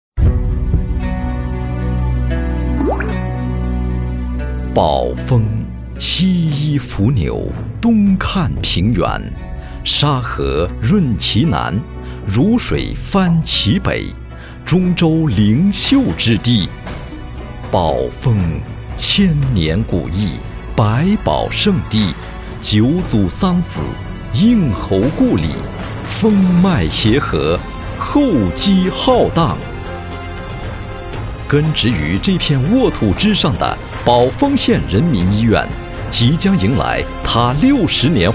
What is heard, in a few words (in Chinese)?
专题 医院 讲述; 宝丰人民医院